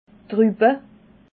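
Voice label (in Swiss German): "ruppe", Alsatian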